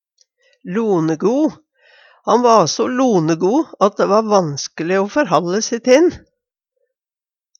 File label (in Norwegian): lonego, Numedalsmål; Adjektiv